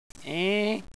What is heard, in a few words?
nervous, hostage reactions